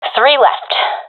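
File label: enemies left three, professional female; vo; Counter Strike Model Pack; CS:GO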